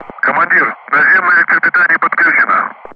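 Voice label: Speech Russian; Ground Crew; DCS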